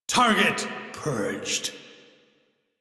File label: Crew Gun Kill Fighter, Male; Dialog; en; Warframe; Railjack Crew; Arbiters Hexis